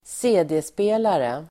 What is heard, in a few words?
"cd-spelare", Swedish